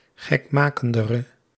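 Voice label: "gekmakendere", Dutch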